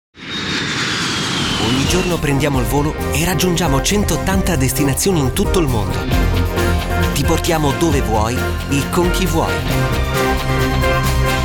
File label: spot